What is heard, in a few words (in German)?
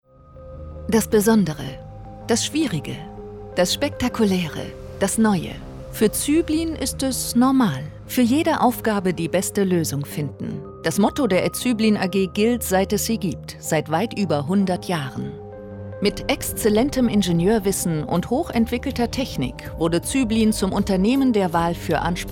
imagefilm; zueblin